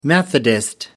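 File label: "methodist", English, British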